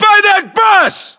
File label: landmark near bus, US